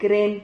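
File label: "cren", Spanish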